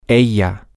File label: her, spanish, M